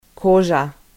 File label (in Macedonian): "kozha", Macedonian